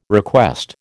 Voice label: "request", American